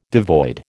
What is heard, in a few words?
"devoid", American